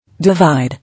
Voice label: "Divide" English